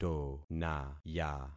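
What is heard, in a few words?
"tonaya", concatenated syllables; Affixing experiment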